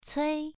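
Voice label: "cui" Mandarin, first tone, pronunciation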